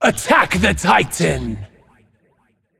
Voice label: vox attack m; smite; tiamat